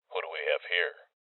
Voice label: Voices EnemySpotted Male